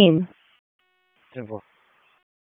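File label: Police Southwest District Dispatch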